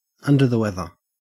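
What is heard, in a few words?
"under the weather" Australian English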